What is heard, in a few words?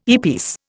"earpiece", Indian